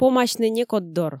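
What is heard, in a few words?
"помасьны некоддор", Komi, female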